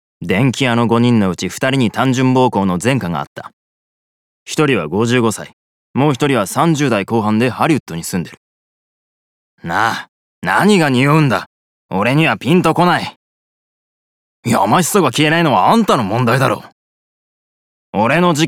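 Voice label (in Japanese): 刑事30代, ボイスサンプル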